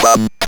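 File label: ROBVOX, robot voice; E-Lab; X Static Goldmine 1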